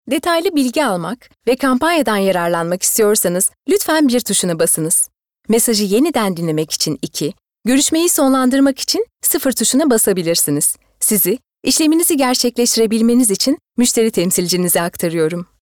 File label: IVR